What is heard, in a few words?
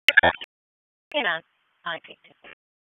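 Dispatch 1, P25; WSO DISP 1; wake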